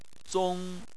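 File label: "zong" first tone, chinese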